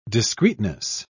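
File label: "discreetness", British English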